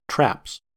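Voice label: "traps", US English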